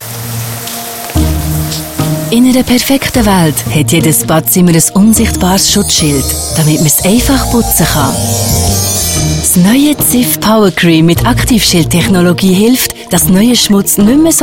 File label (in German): TV Spot; CIF; Tonstudio Z